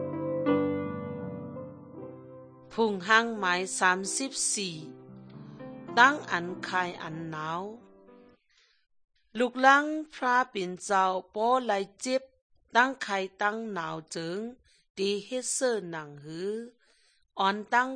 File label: good news shan eastern, drama